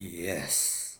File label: "Yes", Decisive